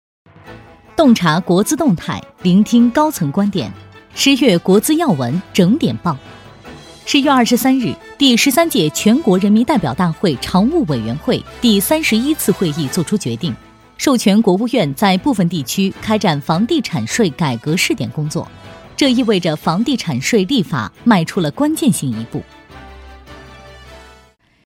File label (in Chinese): 新闻类; 国资动态